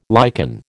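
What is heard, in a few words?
"lichen", American English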